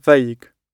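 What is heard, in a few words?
"vague", British English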